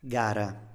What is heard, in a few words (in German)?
"gara", Italienisch; Wettkampf; Standard-Italienisch